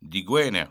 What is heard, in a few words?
friday Breton